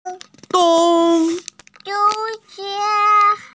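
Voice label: "dong1", Mandarin Chinese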